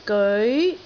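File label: "geoi2", Cantonese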